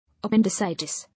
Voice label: "Appendicitis", English

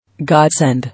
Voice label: "Godsend", English

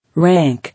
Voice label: "Rank", English

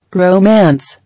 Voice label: "Romance", English

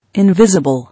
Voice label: "Invisible", English

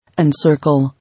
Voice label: "Encircle", English

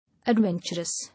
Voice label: "Adventurous", English

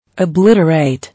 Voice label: "Obliterate", English

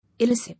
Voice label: "Illicit", English

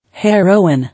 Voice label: "Heroin", English

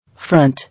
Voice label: "Front", English

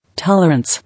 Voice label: "Tolerance", English

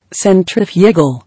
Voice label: "Centrifugal", English

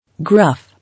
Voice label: "Gruff", English

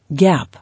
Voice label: "Gap", English

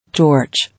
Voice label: "Torch", English

